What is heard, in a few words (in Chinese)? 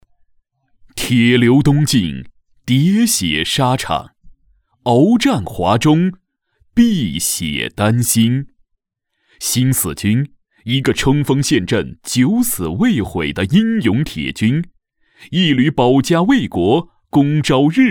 展馆解说 纪念馆解说 红色解说; 新四军纪念馆改陈布展项目